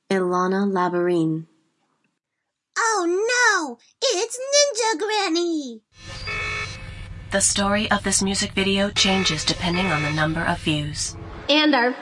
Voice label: mix char